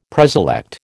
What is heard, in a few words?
"preselect", American